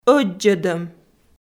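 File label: "ӧддзӧдӧм", Komi, female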